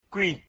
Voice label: "kwi", mina